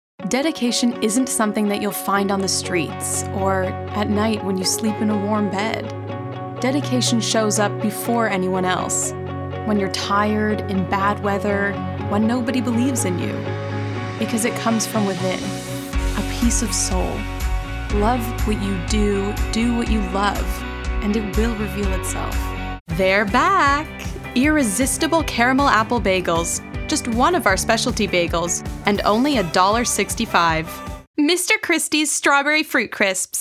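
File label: American English, General; GoLocalise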